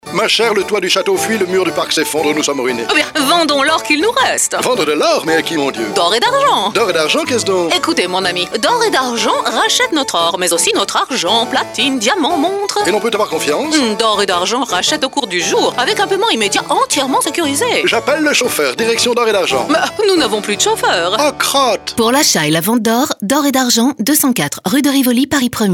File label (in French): radio pub spot